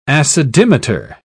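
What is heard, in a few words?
"acidimeter", British English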